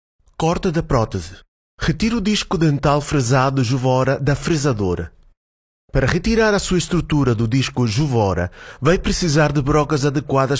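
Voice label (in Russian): голоса, Portuguese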